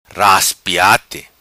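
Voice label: "Raspiati", patua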